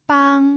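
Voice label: "bang", pinyin, first tone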